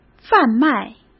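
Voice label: "贩卖", Mandarin Chinese; chinese-flashcards; hsk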